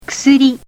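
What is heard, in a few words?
"kusuri", Japanese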